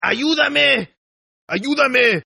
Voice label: Toughguy Help me Spanish, Tough Hispanic Male Saying; Exclamation